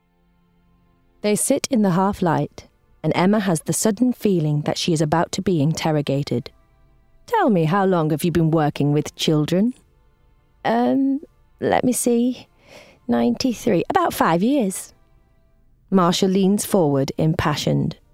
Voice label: Audiobook Read; One Day